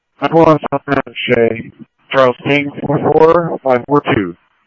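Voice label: police department, scanner audio trunk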